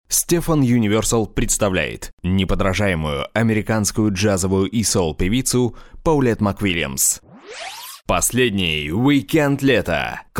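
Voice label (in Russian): advert no fx, Мужчина, Молодой, Русский, Бархатный, Средний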